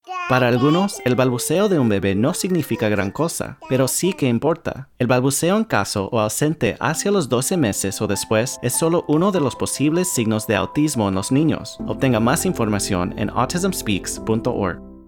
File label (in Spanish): Radio Span; Autism Speaks